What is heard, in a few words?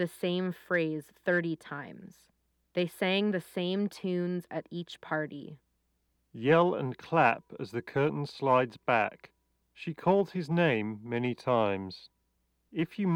3.5mm Ideal microphone sample; Beyerdynamic Amiron Wireless